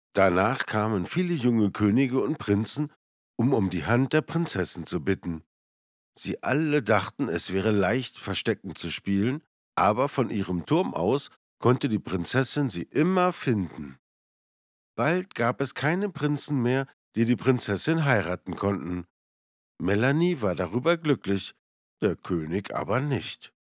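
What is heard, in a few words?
german male narration; voice demos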